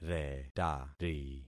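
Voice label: "retari", concatenated syllables; Affixing experiment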